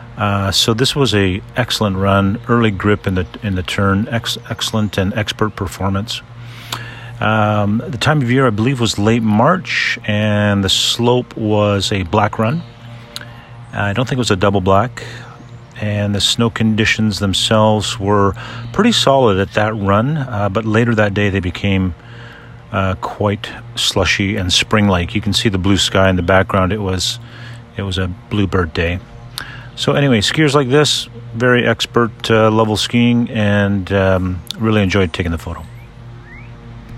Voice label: Voice Dynamic Parallel